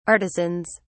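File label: "artisans", English, British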